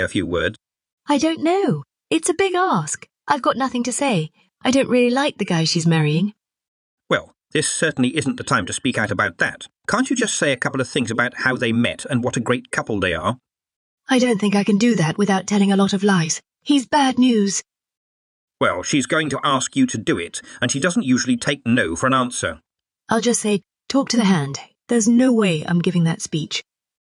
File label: Brunch Dialogue